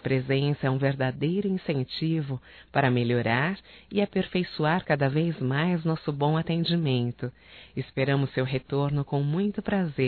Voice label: CLIENTE ADVOGADO(F); Profissionais; fem; Telemensagens 2017

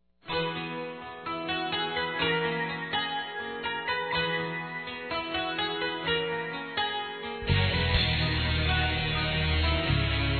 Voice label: NEUTRO F, Telemensagens Aniversários; ANIV. Evangelico; Fem

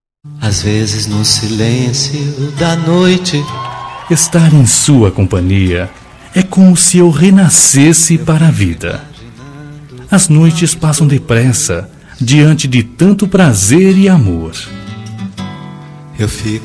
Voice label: ROM MASC, Telemensagens; AS NOITES EM SUA COMPANHIA; Telemensagens 2017; Românticas

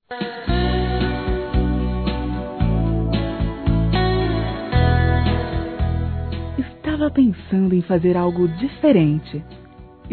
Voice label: CONVITE PARA SAIR, fem; Telemensagens; Sensuais

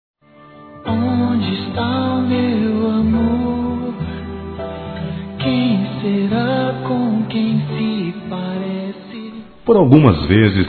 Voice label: ANO NOVO P MÃE M, masc; Telemensagens; Natal e Ano novo

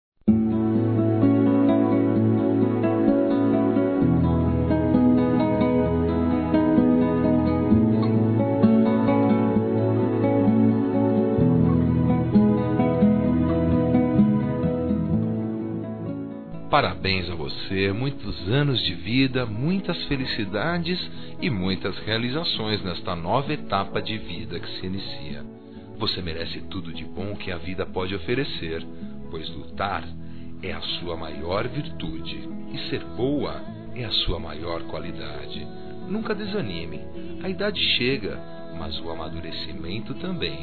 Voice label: NEUTRO M, Telemensagens Aniversários

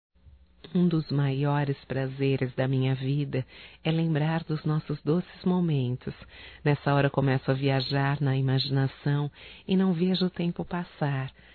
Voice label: ROMANTICA(F), Românticas, fem

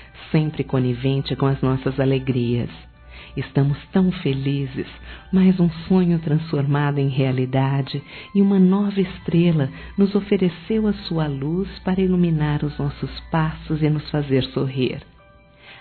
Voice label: AGRADECENDO VISITA F; fem

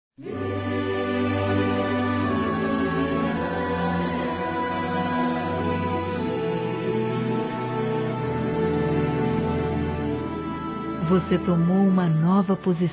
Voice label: FOI BATIZADO F, Telemensagens Gospel fem; Telemensagens 2017

